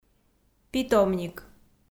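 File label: "питомник", Komi, female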